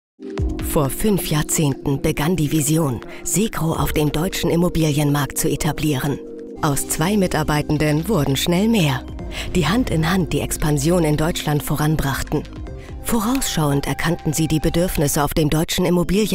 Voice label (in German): imagefilm; segro